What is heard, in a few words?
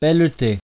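"pelleter", French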